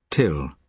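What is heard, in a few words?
"till", British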